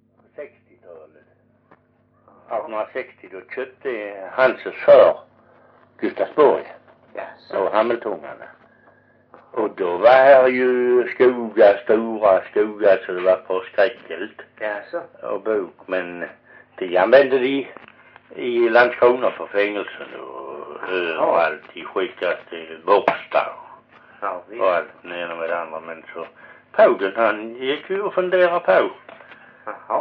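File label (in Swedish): Perstorp Skåne, dialekter, svenska sagor; Folkminnen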